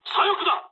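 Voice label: Japanese left wing mood low; War Thunder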